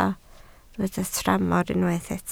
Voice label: "tramor", Welsh